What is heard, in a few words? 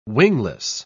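"wingless", British English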